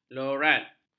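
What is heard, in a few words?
"loghat", Malay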